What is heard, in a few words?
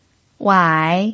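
"wai", third tone, Mandarin Chinese pinyin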